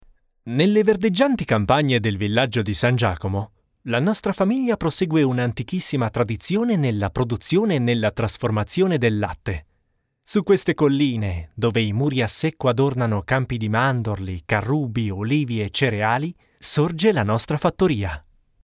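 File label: Italian, male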